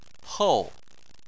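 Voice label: "hou", Chinese, fourth tone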